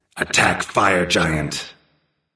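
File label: vox attack female; smite; hou yi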